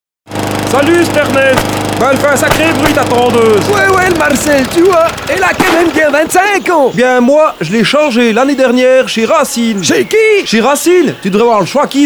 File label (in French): pub voix off